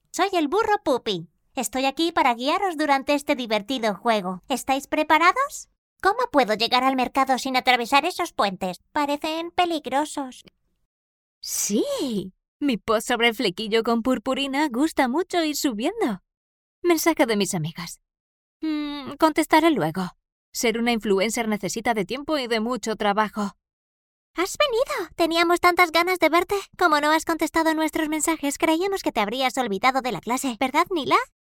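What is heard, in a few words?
Spanish Spain, female, voice over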